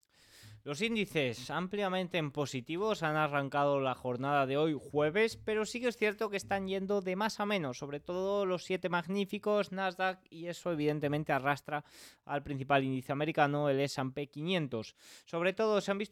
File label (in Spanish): radio; noticias bolsa